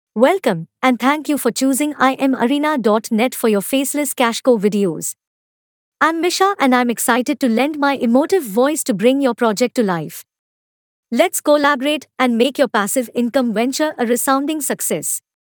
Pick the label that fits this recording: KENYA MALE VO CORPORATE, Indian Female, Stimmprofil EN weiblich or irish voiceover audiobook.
Indian Female